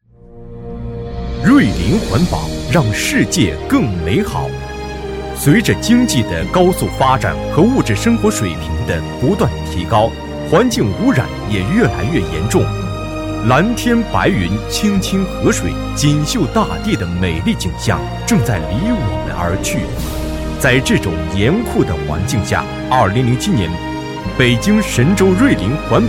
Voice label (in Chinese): 宣传片; 北京瑞林环保公司